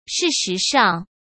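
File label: "事实上", Mandarin; chinese flashcards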